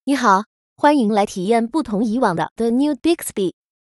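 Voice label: onboarding provision greeting, Chinese; Bixby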